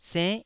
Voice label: "senn9", taiwanese syllable

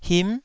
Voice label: "him9", Taiwanese syllable

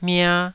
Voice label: "mia2", taiwanese

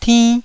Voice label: "tinn1", Taiwanese

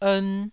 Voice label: "ern1", taiwanese syllables

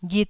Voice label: "ghit1", Taiwanese syllable

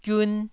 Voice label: "giun1", taiwanese

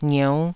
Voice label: "ngieu5", taiwanese syllables

